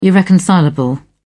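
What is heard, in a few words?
"irreconcilable", British English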